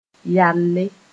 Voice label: "yalle", Moore